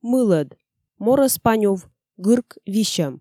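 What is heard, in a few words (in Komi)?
"мылӧд, морӧспанюв, гырк висьӧм", Komi, female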